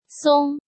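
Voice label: "Sōng", Chinese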